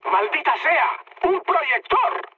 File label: searchlite, mood high, speech; War Thunder